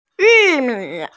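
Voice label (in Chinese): "yu4mi3", Mandarin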